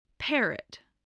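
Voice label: "parrot", spoken word, spelling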